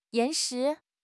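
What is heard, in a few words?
"岩石", Mandarin Chinese; HSK6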